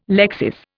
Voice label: "lexis", Indian